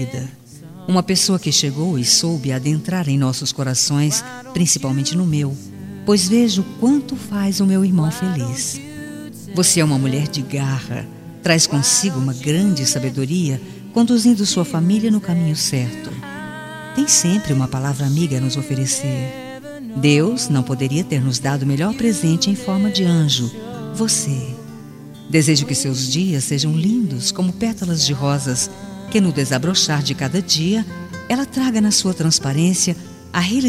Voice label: aniversario CUNHADA FEM, telemensagem aniversario cunhada cunhado

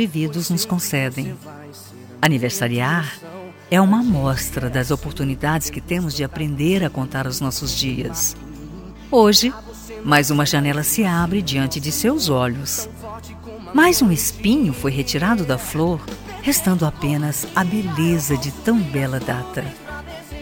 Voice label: aniversario MADRINHA FEM, telemensagem aniversario madrinha padrinho

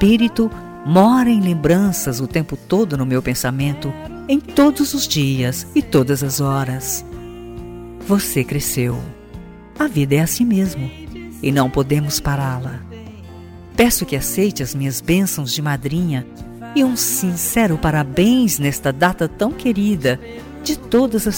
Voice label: ANIV AFILHADO FEM, telemensagem

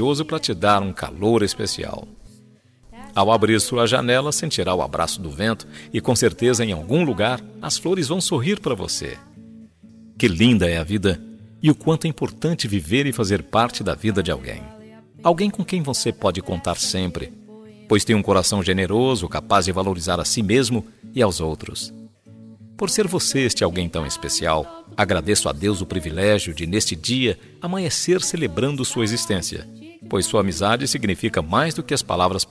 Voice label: ANIV. COMPADRE, telemensagem aniversario comadre compadre

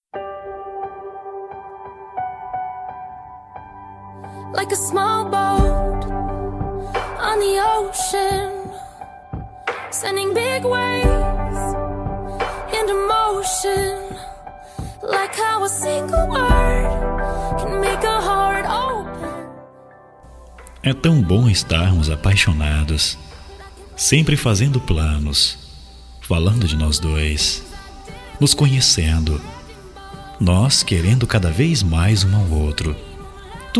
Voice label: Romantica Geral Masculino, Telemensagem

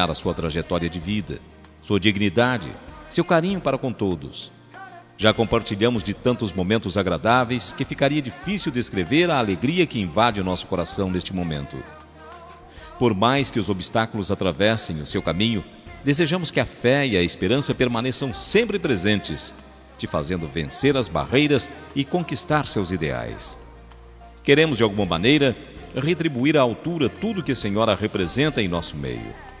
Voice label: ANIV MADRINHA MASC, telemensagem aniversario madrinha

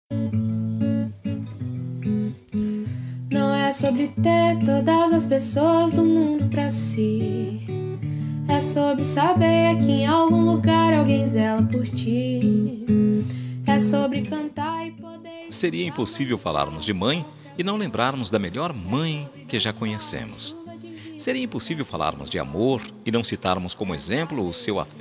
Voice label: Dia das Maes Avo MASC, telemensagem dia das maes avo

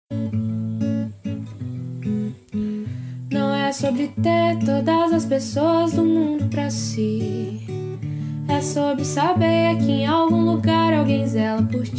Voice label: ANIV NEUTRO FEM, Telemensagem Aniversário Neutro

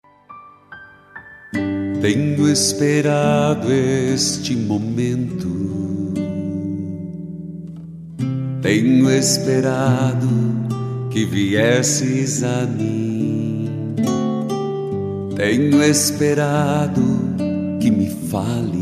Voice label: ANIV RELIGIOSO MASC, Telemensagem; telemensagem aniversario religioso

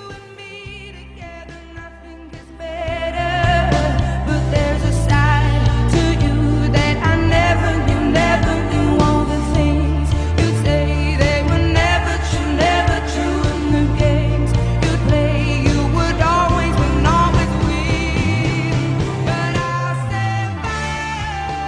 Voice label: ROMANTICO ESPOSO F, telemensagem romantica para esposo

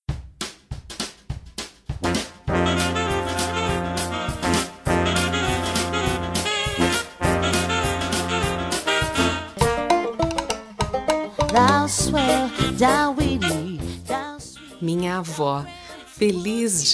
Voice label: dia das maes, telemensagem; DIA DAS MAES AVO F

